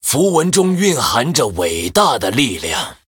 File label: Male Human Picked, zh